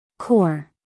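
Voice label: "core", American English, female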